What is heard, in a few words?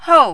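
"hou", fourth tone, Mandarin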